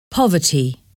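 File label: "poverty", English word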